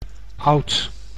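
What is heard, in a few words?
"oud", Dutch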